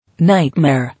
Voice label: "Nightmare", English

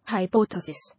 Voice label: "Hypothesis", English

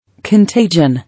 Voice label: "Contagion", English

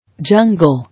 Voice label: "Jungle", English

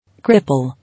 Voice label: "Cripple" English

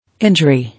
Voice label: "Injury", English

